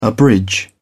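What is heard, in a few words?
"abridge", English, British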